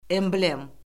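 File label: "emblem", Azerbaijani; Orfoqrafiya Lüğəti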